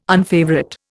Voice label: "unfavourite", Indian